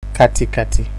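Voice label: "katikati", Nigerian Pidgin, male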